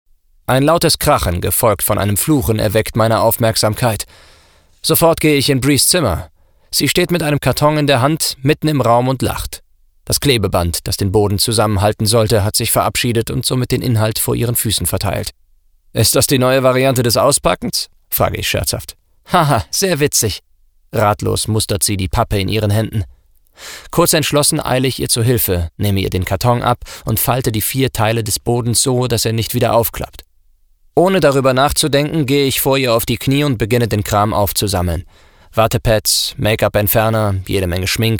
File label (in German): hoerbuch